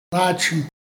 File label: Naachii, Dane-ẕaa; Rêveurs